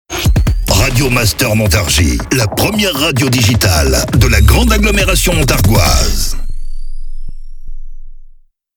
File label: Sweeper SPEED; Montargis